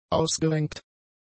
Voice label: "ausgerenkt", German; adjectives